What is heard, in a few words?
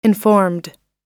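"informed", US English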